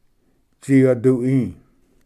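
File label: "tsiyadui", Cherokee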